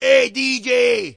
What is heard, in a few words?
"hey dj", male; MALE MISC